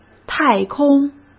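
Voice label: "太空", Mandarin Chinese; chinese flashcards; hsk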